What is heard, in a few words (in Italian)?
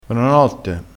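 "bonanotte", dialettale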